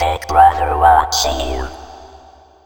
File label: BIGBROTHER, Funky Vocals; USB Soundscan vol.18; AKAI; COMPUTER